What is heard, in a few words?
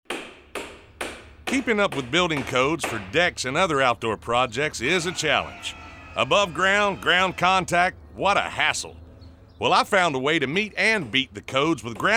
Radio